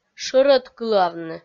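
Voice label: "шӧрӧд кылавны", Komi, female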